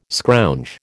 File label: "scrounge", American